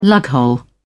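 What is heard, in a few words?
"lughole", British English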